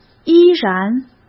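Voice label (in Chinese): "依然", Mandarin; chinese flashcards; hsk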